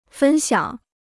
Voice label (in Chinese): "分享", Chinese